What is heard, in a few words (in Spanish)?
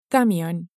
"camion", Spanish